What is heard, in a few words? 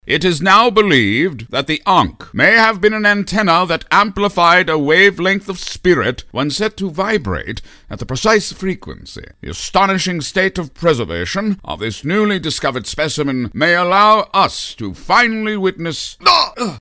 Professor, Brilliant & Authoritative; animation